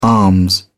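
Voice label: "arms", British English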